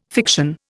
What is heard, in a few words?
"fiction", Indian